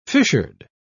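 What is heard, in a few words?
"fissured", British English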